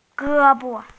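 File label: "gē bo", Mandarin Chinese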